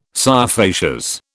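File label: "scire facias", British English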